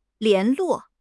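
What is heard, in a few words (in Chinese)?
"联络", Chinese; HSK6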